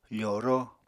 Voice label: "foro", Apatani

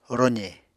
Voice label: "roze", Apatani

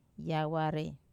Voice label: "aware", to sew, wamesa

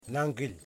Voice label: "Fingers", Yimas

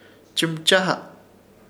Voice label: "Chɨmchaha", Ishir Chamacoco; insertar

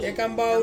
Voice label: "kambau", Ansus; to split

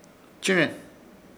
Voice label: "Chɨ̃re", Ishir Chamacoco; soltar

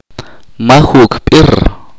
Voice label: "sin filo", chorti

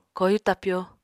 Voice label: "koyu tapyo", Apatani

